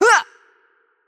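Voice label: Attack Heavy, Polish; pl PL; bloom minigame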